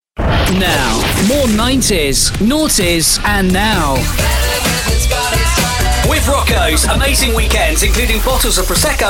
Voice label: 90s 00s now station imaging for radio; norwich